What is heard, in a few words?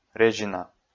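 "резина", Komi, male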